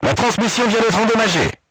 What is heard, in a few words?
voice message horizontal aiming drive damaged, crew tanks french